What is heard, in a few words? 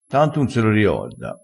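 "a fa", Italian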